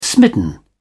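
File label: "smitten", British English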